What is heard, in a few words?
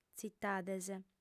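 "tzitades", female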